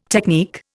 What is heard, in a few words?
"technique", Indian